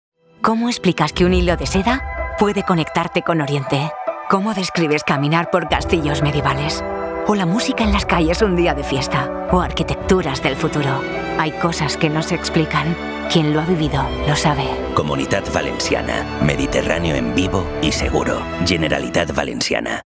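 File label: comercial, Spanish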